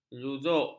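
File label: "juzuk", Malay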